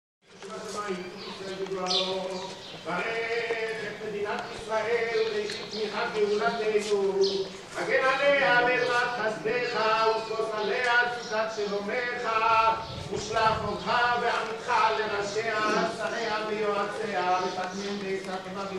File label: Jew Male Prayer birds, jewish hebrew